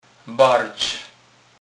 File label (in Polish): "barc", kurpie; slownik